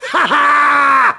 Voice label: "corners", grunt; nimble grunt